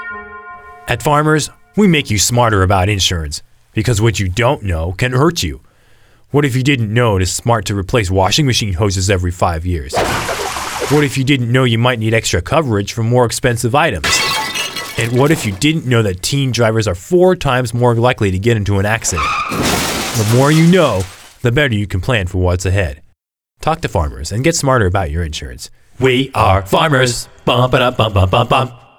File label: Commercial; Farmers Insurance